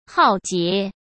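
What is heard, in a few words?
"浩劫", Mandarin Chinese; chinese flashcards; hsk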